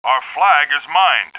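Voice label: male flag mine; Starsiege; Tribes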